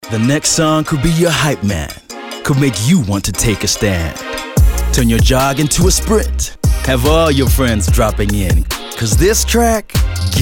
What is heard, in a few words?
English Soft